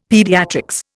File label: "Paediatrics", Indian; Dictionary